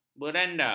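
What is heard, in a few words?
"beranda", Malay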